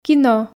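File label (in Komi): "кино", Komi, female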